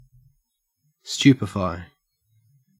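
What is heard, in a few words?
"stupefy", Australian English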